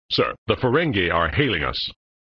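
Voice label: "Sir, The Ferengi are hailing us", male voice; Worf; flipper; sttng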